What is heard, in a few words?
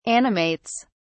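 "animates", British English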